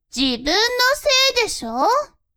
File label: woman